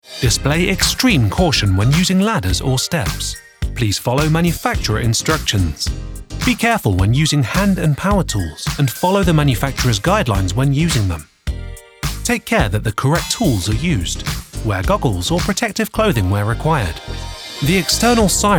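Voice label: Explainer, voice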